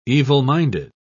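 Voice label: "evil-minded", British English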